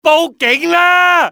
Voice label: "call police", male; XBOX; civ